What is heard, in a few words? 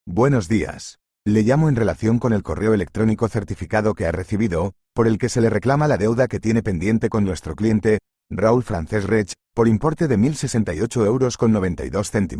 audio call